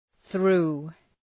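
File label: "through", English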